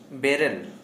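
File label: Santali male raw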